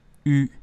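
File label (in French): "U", learn French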